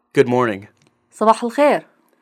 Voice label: iraqi, Good morning sabah el-kheir صباح الخير